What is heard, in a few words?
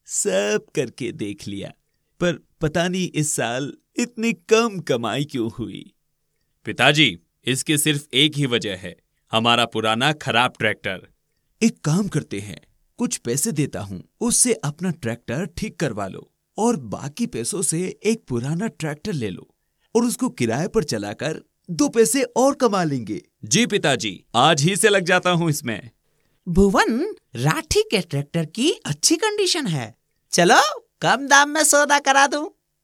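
Character voice sample, Male Voice Over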